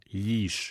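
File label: "glisch", Romansh Sursilvan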